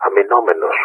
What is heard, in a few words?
engaged defensive; Wingman